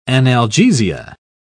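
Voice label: "analgesia", British English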